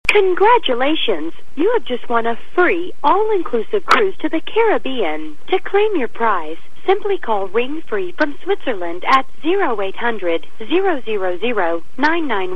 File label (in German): telefonspam; spatenglisch für die Schweiz